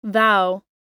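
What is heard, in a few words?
"thou", US English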